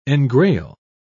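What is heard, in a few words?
"engrail", British English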